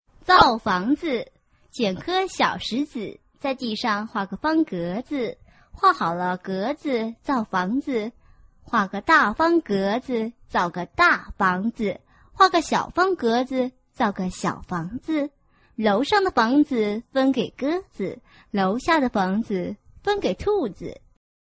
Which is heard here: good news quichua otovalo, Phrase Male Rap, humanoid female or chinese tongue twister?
chinese tongue twister